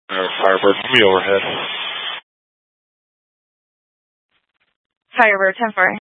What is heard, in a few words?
Mutual Aid-Intersystem Interop B, scanner